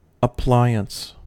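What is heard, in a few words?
"appliance", US English; pronunciations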